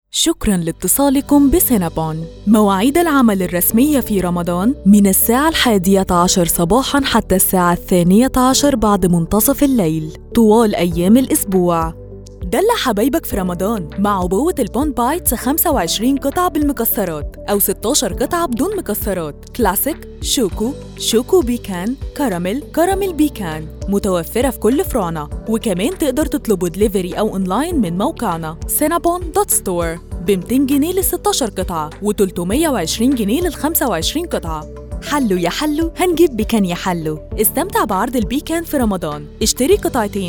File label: IVR; Cinnabon